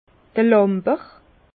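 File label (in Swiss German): "lamber", Alsace Bas Rhin; vin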